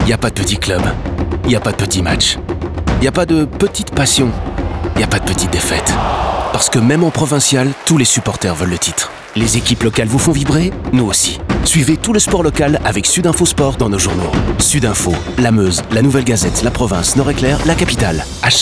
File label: FR radio; SudInfo